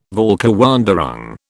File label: "Völkerwanderung", British